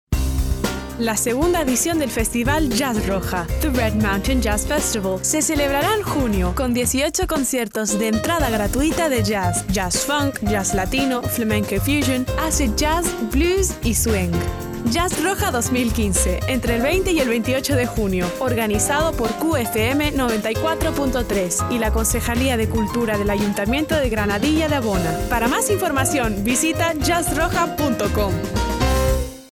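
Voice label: jazz trailer, Spanish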